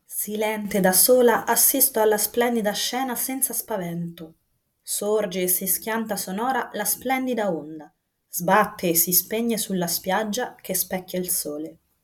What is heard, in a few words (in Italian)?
sibilanti notebook